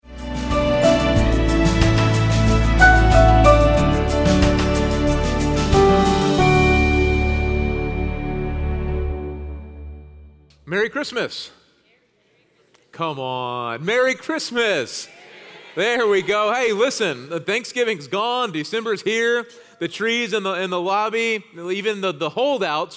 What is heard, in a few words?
Sermon English